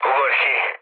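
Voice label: Hungarian bailout mood low, speech; War Thunder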